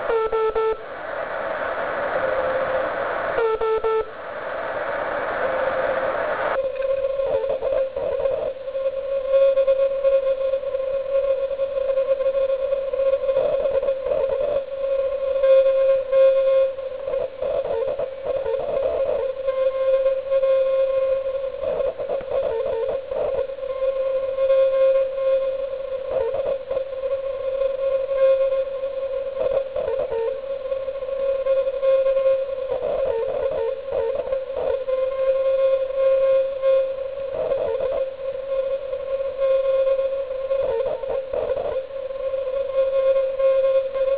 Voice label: EME QSO between two station at 10 km of distance, 144MHz echoes; Listen to echoes of a four short yagi ( and little tubes ! ) station.; VHF-UHF